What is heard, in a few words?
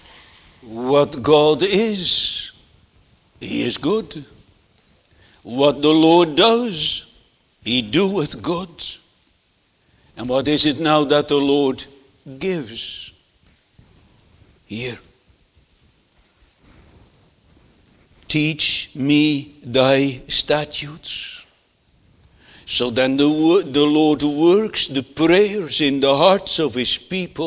Sermon Continued; The Lord's Incomprehensible Goodness; Netherlands Reformed Congregation, St. Catharines, ON